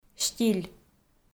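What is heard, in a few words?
"стиль", Komi, female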